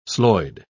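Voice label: "slojd", British English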